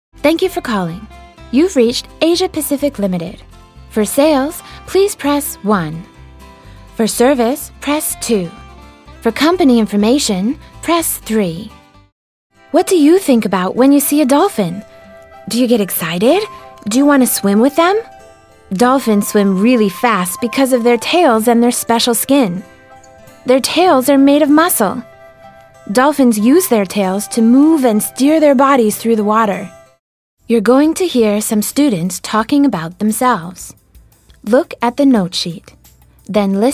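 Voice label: American, narration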